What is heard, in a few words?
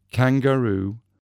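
"kangaroo", English word; animals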